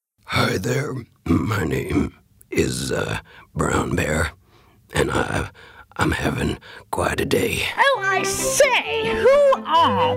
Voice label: character

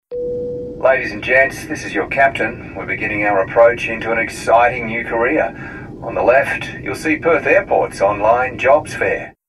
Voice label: voiceover; Perth jobs fair

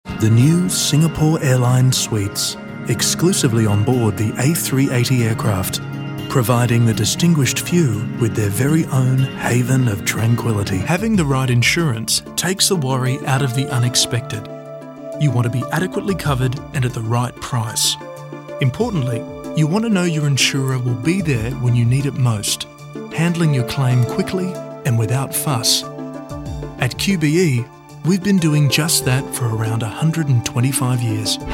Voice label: corporate

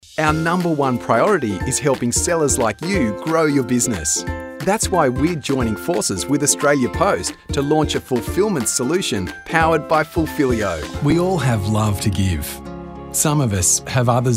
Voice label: VO NARRATIONS

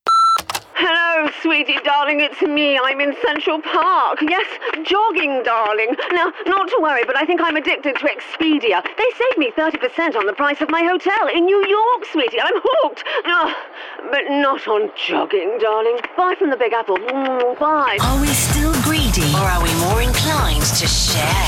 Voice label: Accents Comedy

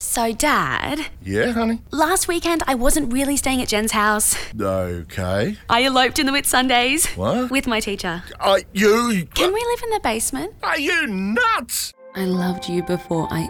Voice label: character

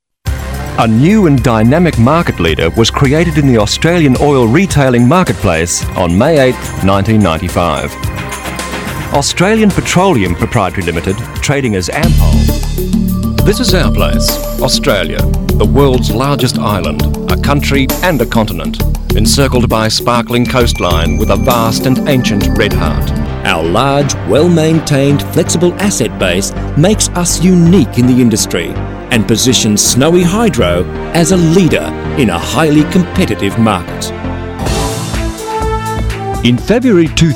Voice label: corporate

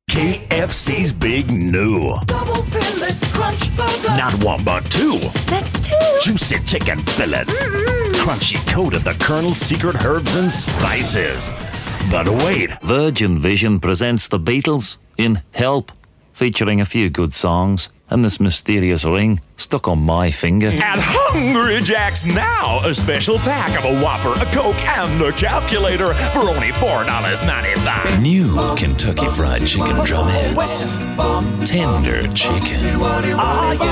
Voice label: character and accent combined

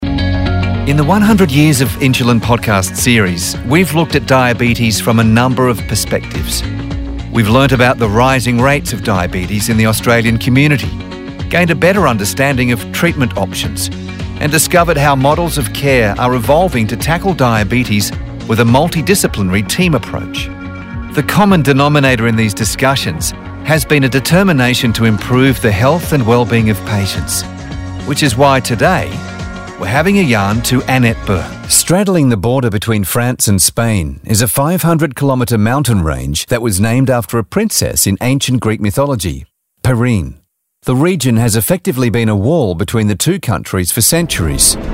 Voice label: NARRATION

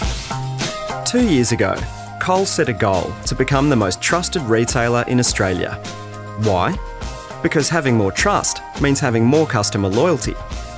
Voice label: NARRATION

